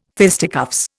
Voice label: "fisticuffs", Indian

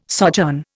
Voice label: "sojourn", Indian

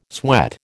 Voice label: "sweat", American

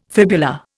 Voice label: "fibula", Indian

